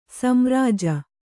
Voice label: "ಸೋಮರಾಜಿ", Kannada